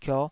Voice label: "kioh5", taiwanese syllables